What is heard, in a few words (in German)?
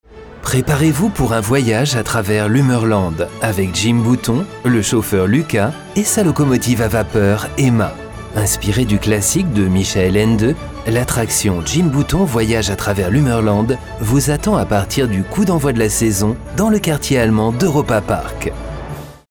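French Fremdtake Sanft, voices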